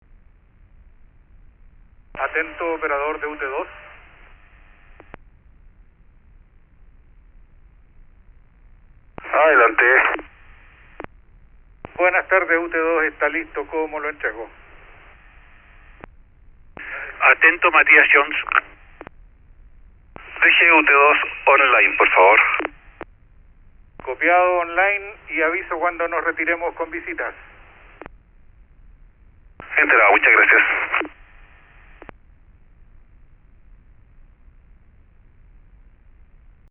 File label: radio handover; paranal; UT2